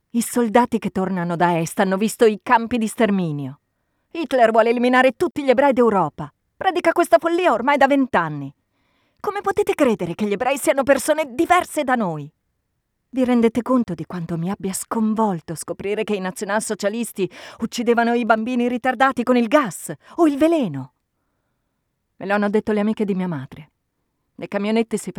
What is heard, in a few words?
italian audiobooks